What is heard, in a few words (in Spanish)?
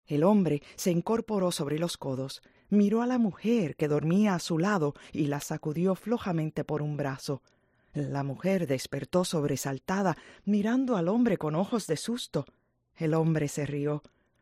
spanish audio